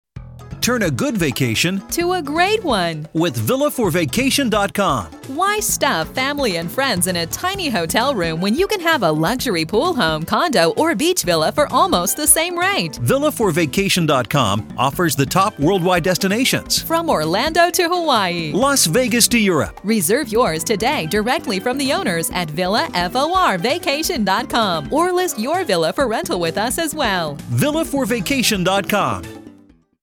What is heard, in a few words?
Radio; villa4vacation FM